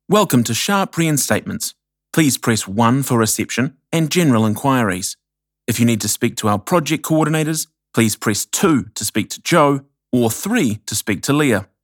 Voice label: Phone Welcome Greeting; Sharp Reinstatements Ltd